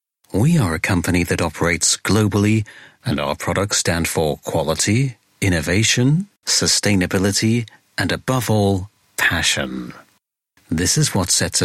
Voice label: imagefilm, english voiceovers